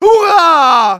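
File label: battle cry, German; Medic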